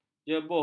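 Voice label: "jebuh", Malay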